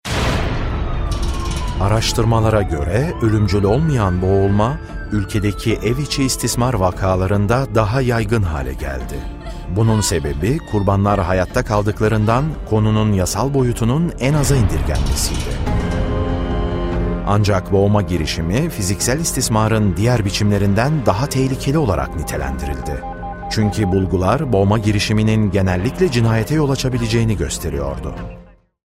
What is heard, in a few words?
Documentary